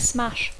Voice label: "smash", English

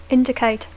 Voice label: "indicate", English

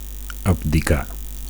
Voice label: "abdicar", Catalan; to abdicate; to renounce

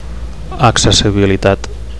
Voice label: "accessibilitat", Catalan; accessibility

